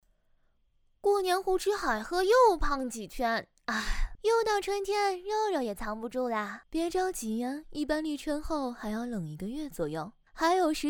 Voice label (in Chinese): 有声漫; 美盐